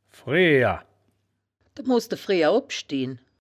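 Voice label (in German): "freher", wittlicher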